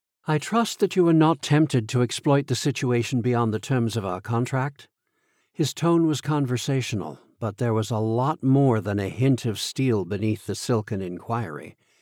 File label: Dark Mystery 3rd POV Dialogue